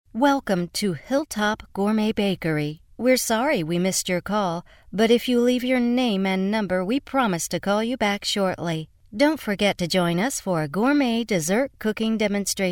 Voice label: Sweet and Soft Dry